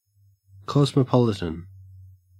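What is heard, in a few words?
"cosmopolitan", Australian English; pronunciations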